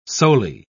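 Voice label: "soli", English, British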